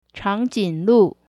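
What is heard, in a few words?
"changjinglu" giraffe, mandarin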